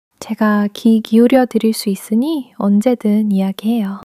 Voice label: joy